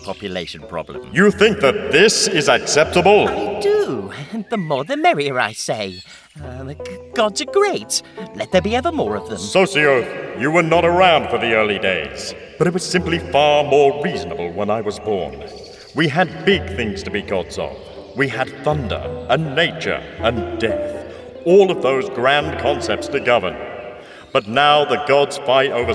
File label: ANIMATION voiceover